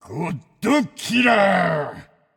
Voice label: alert notify kill all, japanese; caster